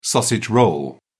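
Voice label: "sausage roll", English, British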